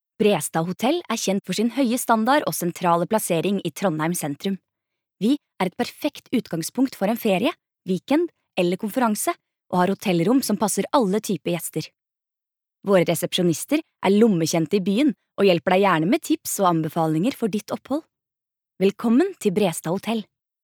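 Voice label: no explainer, Norwegian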